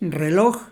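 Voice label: "reloj", Mexican Spanish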